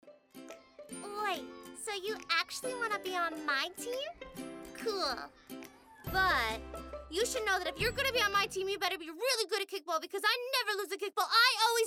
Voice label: Animation Gaming, Women

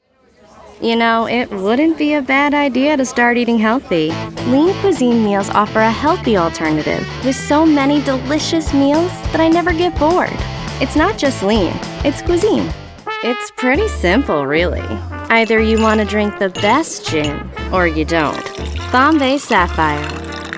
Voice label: Women Commercial

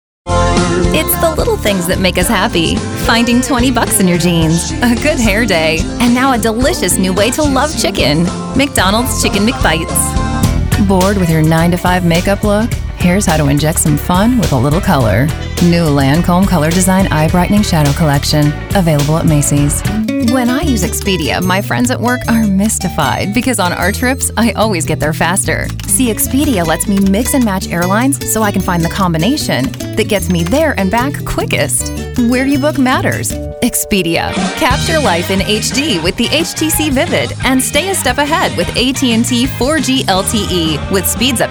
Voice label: Women Commercial

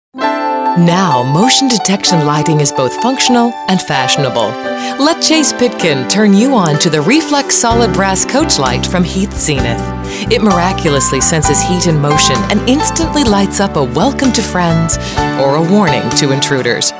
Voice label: Women Commercial

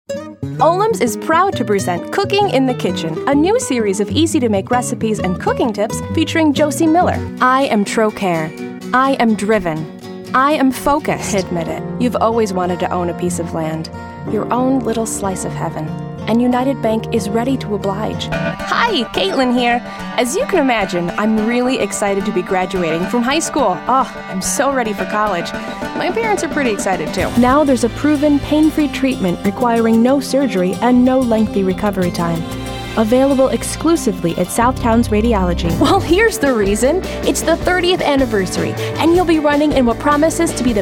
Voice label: Women Commercial

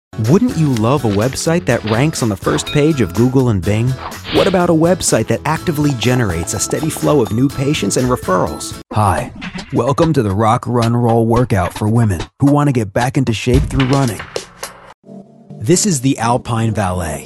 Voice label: Men Narration

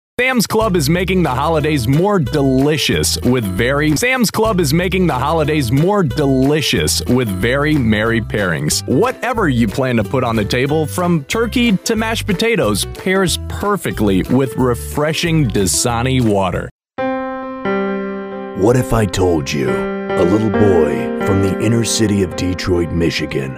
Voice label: Commercial, Men

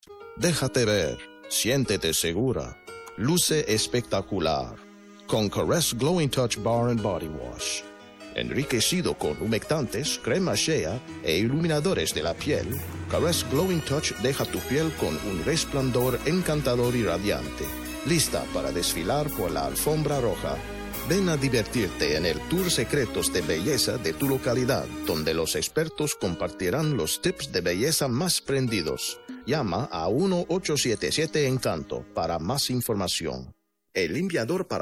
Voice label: Spanish

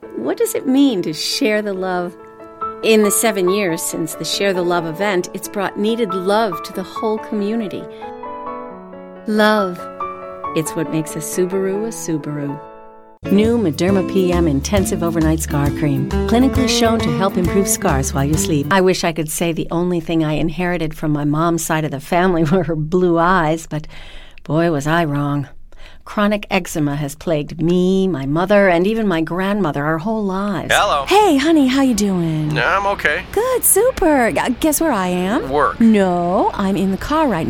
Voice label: Women Commercial

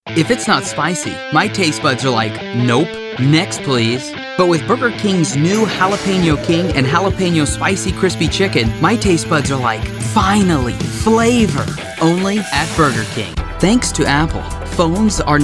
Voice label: Commercial, Men

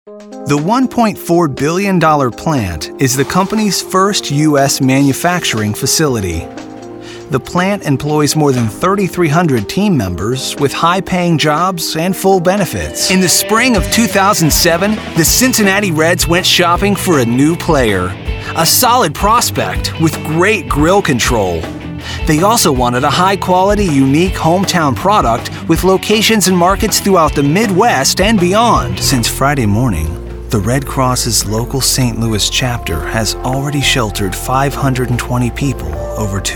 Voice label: Men Narration

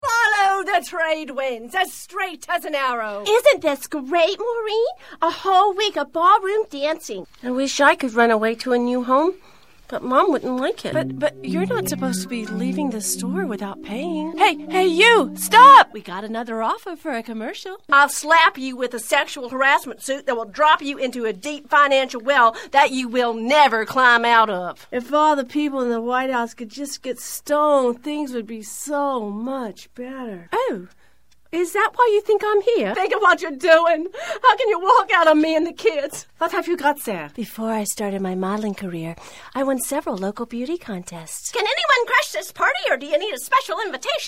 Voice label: Animation, Women

